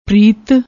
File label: "preet", Punjabi